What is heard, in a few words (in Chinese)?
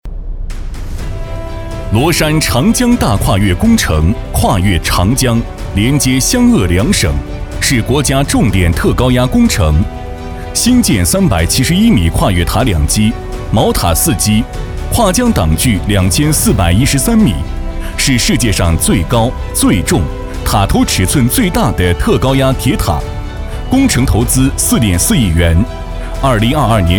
男 工程汇报; 螺山